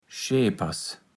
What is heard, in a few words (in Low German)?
"Scheepers", platt